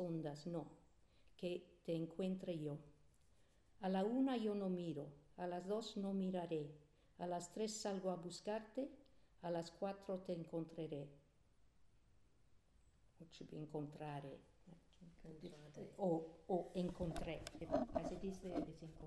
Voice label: Escondido Spanish pronunciation